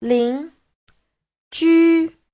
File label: "lin2 ju1", chinese; 邻居; (danh từ) láng giềng; Giáo trình chuẩn HSK3